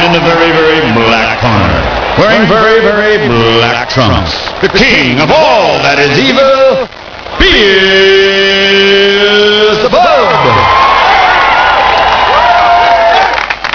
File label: announce satan